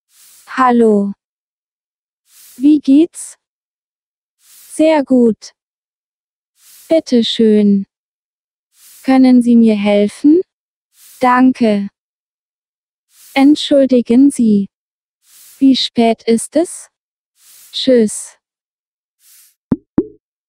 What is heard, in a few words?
german language